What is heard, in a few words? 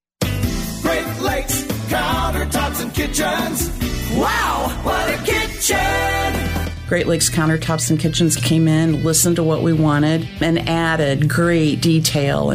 Testimonial